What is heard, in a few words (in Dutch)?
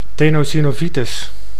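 "tenosynovitis", Dutch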